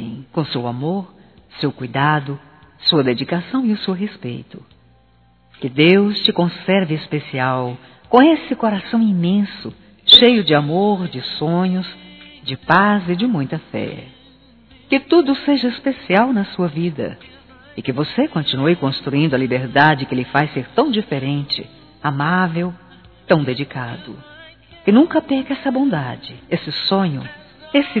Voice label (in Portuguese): PARA AMIGO Feminino; Telemensagens 2017